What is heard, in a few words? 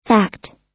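"fact", American English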